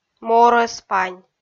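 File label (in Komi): "морӧспань", Komi, female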